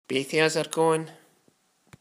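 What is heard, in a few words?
"Baith", slang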